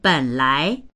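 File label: "ben3lai2", Chinese; běnlái; 本来; 本来（は）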